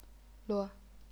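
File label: "luo", neutral tone, chinese pinyin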